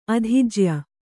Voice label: "ಅಧಿಜ್ಯ", Kannada

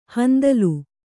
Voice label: "ಹಿಂದೋಲ", Kannada; hindōla; noun; = ಹಿಂದೋಳ.

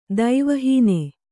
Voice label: "ದೈವಹೀನ", Kannada; daiva hīna; noun; = ದೈವವಿಹೀನ.

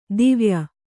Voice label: "ದುವಯಿ", Kannada

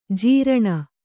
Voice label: "ಝರಣಿ", Kannada

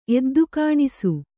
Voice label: "ಎದ್ದುಕಾಣಿಸು", kannada

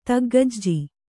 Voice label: "ತಗ್ಗಜ್ಜಿ", Kannada

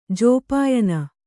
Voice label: "ಜೋಪಾಯನ", kannada

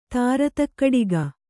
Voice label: "ತಾರತಕ್ಕಡಿಗ", kannada

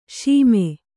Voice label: "ಸುಮ", kannada

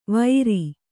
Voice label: "ವೈರಿ", Kannada

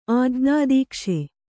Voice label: "ಆಜ್ಞಾದೀಕ್ಷೆ", kannada

